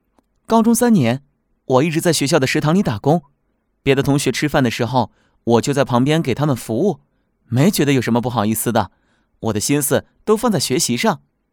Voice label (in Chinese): 高中生 自我 独白